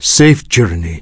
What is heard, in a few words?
Draenei Male Farewell